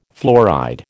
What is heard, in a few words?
"fluoride", American